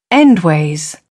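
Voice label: "endways", British English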